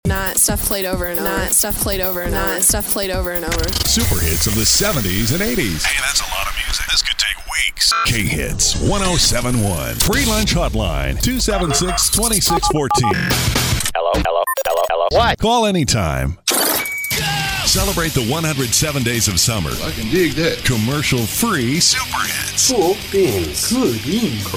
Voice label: Radio Imaging